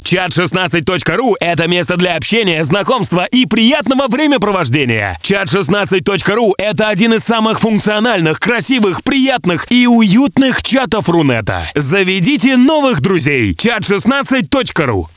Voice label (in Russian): reklama